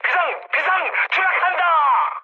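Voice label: Korean aircraft destroyed mood high, speech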